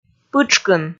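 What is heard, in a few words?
"пытшкын", Komi, female; kpv audio dict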